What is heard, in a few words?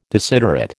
"desiderate", American